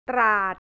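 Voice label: "Trat", Thai, central; ตราด; แผนที่ประเทศไทย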